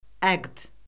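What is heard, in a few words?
"əqd", Azerbaijani; Orfoqrafiya Lüğəti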